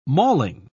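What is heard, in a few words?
"malling", English, uk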